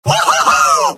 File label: scout cheers; video games; source engine; tf; mvm